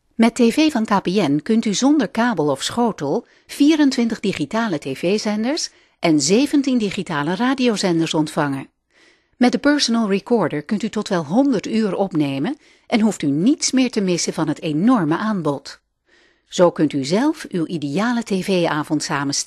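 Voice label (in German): Dutch industriefilm imagefilm, frauen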